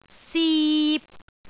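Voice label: "sip3", Cantonese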